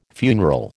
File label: "funeral", American